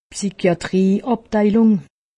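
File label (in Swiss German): "psychiatrie", Haut Rhin; medecine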